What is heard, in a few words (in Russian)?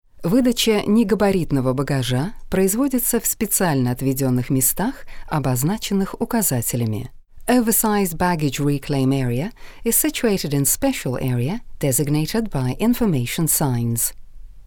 IVR Аэропорты